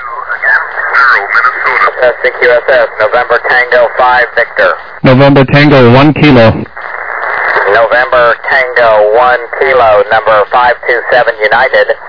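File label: upper sideband 21MHz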